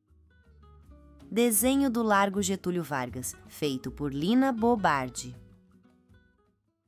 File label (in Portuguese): narracao; largo getulio vargas